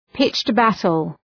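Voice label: "pitched battle", English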